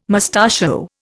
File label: "mustachio", Indian